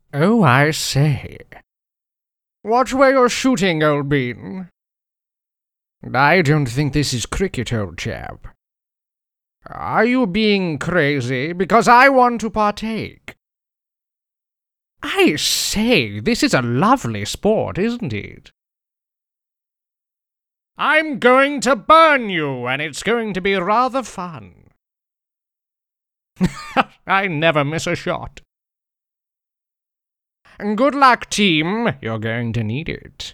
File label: Character Voice; UT4